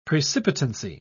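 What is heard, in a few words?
"precipitancy", British English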